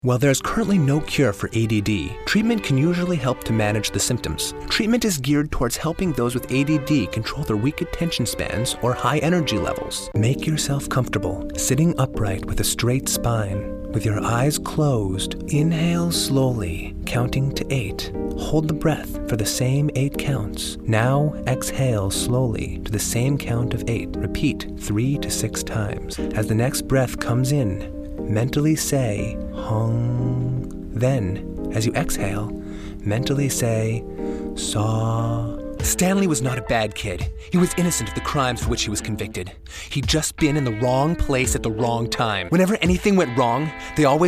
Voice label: Narration